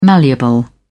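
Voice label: "malleable", English, uk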